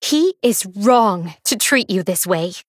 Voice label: Female Human